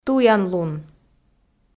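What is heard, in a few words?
"туянлун", Komi, female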